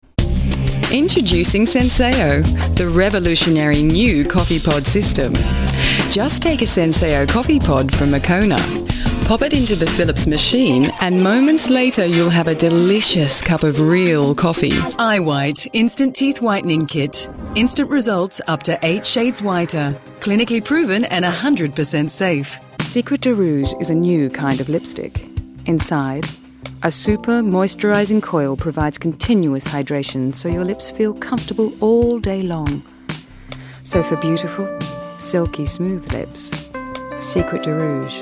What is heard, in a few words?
Australian Commercial